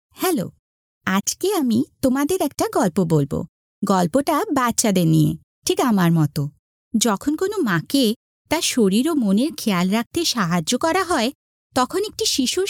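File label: Bengali female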